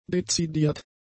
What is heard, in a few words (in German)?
"dezidiert", german; adjectives